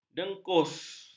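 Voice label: "dengus", Malay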